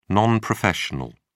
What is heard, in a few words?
"non professional", en uk